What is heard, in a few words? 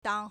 "dang3", Chinese